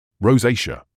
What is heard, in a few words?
"rosacea", English